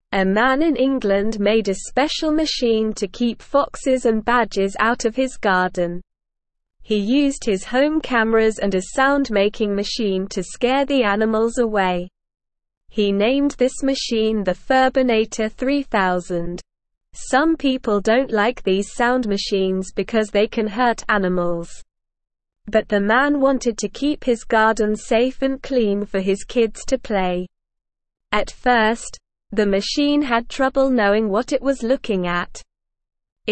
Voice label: English Newsroom Beginner SLOW Reading; Man Invents Furbinator 3000 to Keep Animals Away